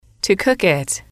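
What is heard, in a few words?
"to cook it", English, female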